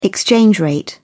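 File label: "exchange rate", British English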